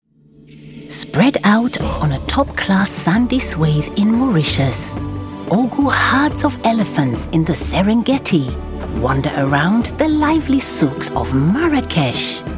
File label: london voice west african accent